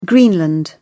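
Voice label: "greenland", British English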